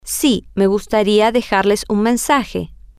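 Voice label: yes I would like to leave them a message, Spanish, female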